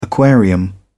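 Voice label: "aquarium", British English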